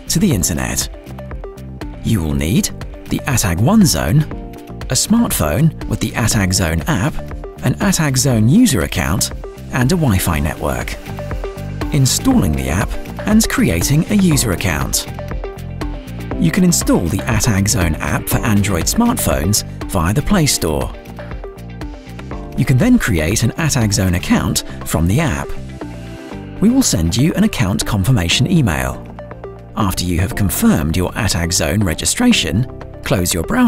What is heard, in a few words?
instructional explainer video; Atag One Zone Connecting the Atag One Zone